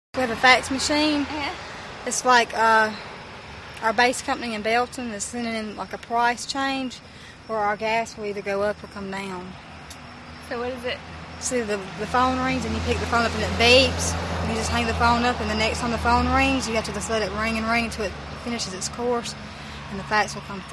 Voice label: South Carolina WF age 19